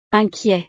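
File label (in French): "inquiet", French; estados animo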